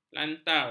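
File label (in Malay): "lantar", Malay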